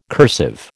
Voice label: "cursive", American